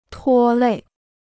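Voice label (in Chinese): "拖累" Chinese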